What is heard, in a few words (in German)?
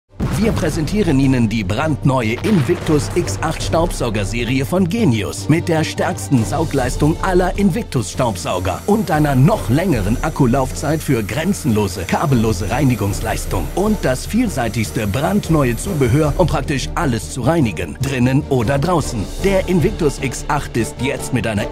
TV Werbefernsehen; INVICTUS X8